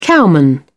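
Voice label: "cowman", British English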